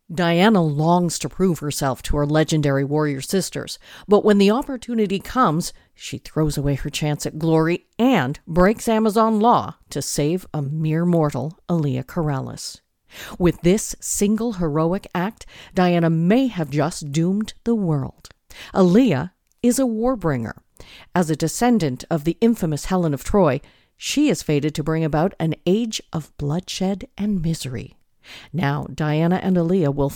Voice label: Audio book